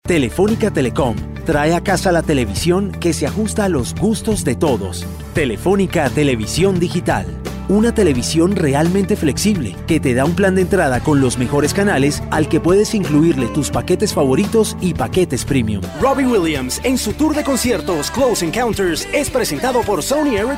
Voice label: commercial, Energetic Male Spanish Voice